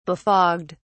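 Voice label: "befogged", en, uk British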